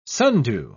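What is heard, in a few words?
"sundew", English, uk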